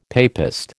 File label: "papist", American